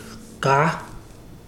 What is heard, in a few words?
"go", Ibibio